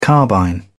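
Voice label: "carbine", English, British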